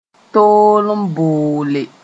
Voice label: "tool n boole", Mooré